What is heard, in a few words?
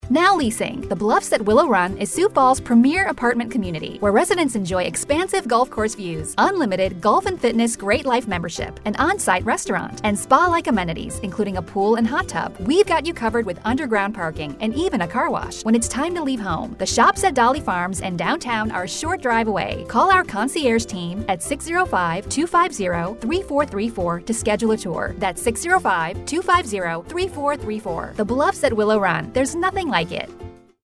Radio